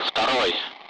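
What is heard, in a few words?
Speech Sound Russian Common Allied Flight; 2nd; DCS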